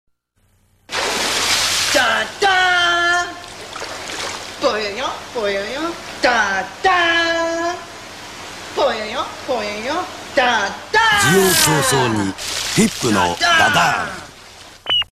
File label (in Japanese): ＣＭ; ピップフジモト; ダダン